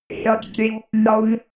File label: robot voice shutting down